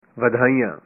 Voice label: "Congratulations", punjabi

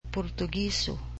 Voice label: "Portuguese", cebuano

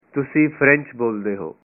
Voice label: you speak French, punjabi

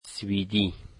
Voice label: Swedish, Dari

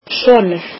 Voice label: icelandic son

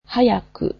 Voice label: "quickly", Japanese

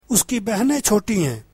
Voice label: his sisters are young, urdu

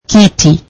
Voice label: Swahili chair

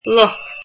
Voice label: "mouse", Irish

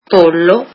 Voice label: italian chicken

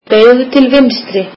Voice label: icelandic Turn left

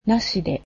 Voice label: "without", japanese

